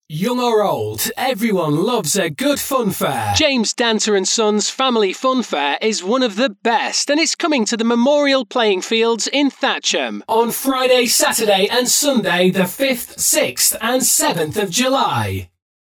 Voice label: Vocal FX